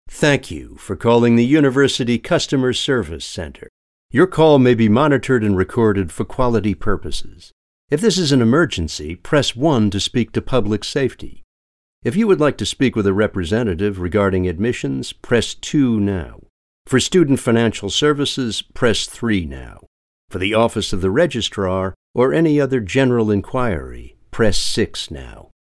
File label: us english ivr